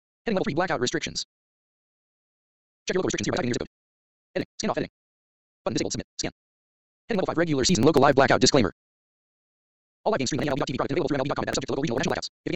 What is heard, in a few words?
narrator shouting